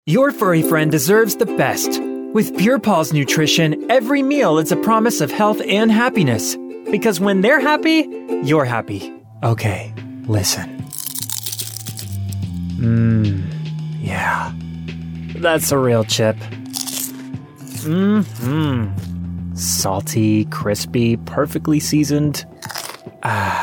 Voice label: Commercial